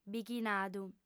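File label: "bighinadu", female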